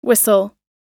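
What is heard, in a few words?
"whistle", English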